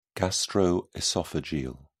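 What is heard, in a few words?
"gastroesophageal", British English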